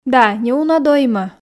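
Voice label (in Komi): да неуна доймӧ, Komi, female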